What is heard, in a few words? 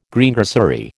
"Greengrocery", American